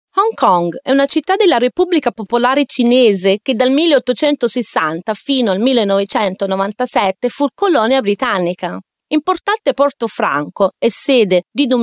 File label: Female Italian Other Projects